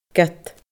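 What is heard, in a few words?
"ged", Scottish Gaelic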